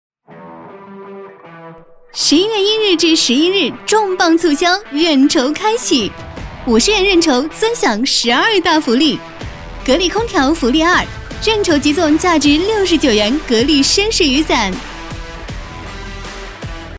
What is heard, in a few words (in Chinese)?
女 重磅 促销